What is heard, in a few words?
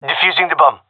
defusing, gendarmerie male; vo; agents